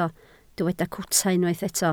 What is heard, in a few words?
"cwta", Welsh